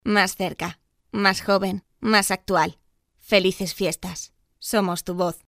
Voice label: jingle navidad cerca spanish christmas voiceover locutora; Jingle navideño "Más cerca"